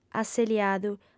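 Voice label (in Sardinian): "aseliadu", lingua sarda; fem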